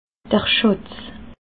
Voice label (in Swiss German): "schatz", alsace